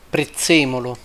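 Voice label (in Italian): "prezzemolo", Italian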